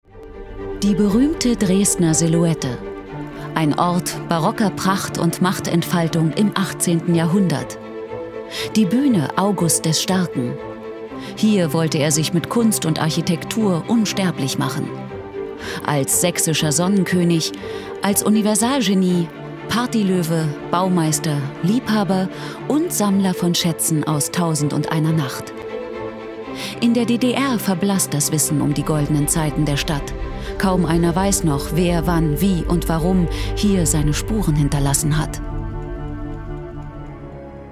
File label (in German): Doku; Sachsens Glanz; ARD